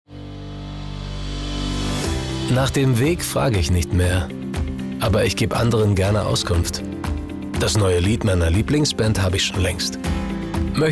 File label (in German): Auskunft